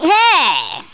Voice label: "ouch", Speech; Worms Armageddon

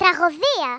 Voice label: "FATALITY", Greek; Worms Armageddon

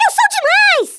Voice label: "BRILLIANT", Portuguese; Worms Armageddon

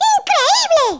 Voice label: "BRILLIANT", Spanish

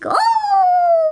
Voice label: BUNGEE, Speech, Polish; Worms Armageddon

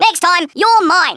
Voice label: "I'll get you", Australian; Worms Armageddon

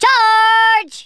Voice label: kamikaze, Drill Sergeant; Worms Armageddon

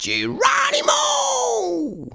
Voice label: "Bungee", Danish; Worms Armageddon; Danish-Pyrus

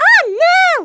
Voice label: "Bummer", Speech Portuguese; Worms Armageddon

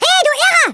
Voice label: "OI NUTTER", German; Worms Armageddon

